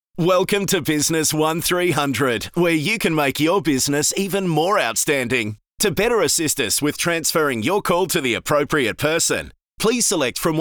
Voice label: ENERGETIC